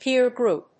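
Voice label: "peer group", English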